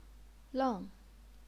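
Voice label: "leng", Chinese, fourth tone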